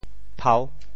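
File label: "phau6", Teochew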